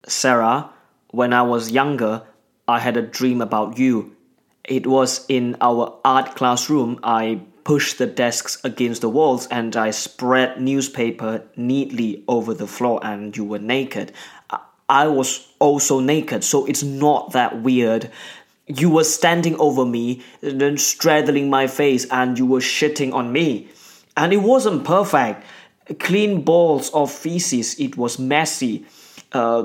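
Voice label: Malay, Accented English; GoLocalise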